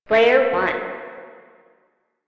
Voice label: Arcade Voices; Warframe; Ambience; Nineteen Ninety Nine